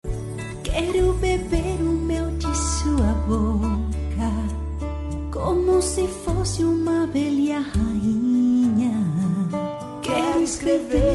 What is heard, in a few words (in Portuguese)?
dia dos namorados namorado, Dia dos Namorados – Para Namorado – Voz Feminina